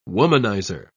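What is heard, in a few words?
"womanizer", British English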